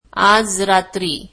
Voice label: marathi tonight